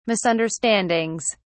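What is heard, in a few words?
"misunderstandings", British English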